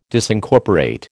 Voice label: "Disincorporate", American